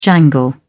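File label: "jangle", British English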